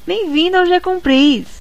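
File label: welcome, Brazilian Portuguese; gcompris-data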